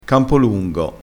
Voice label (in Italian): "campolungo", crespinese